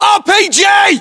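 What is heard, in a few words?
threat rpg, battlechatter, British; localized english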